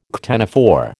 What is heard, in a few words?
"ctenophore", American